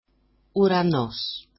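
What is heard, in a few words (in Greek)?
"uranos", Greek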